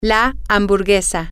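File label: Spanish, female; the Hamburger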